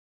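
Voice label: Wake Forest Police Dispatch, p25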